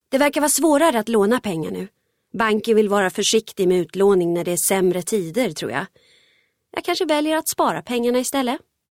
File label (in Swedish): svensk kvinna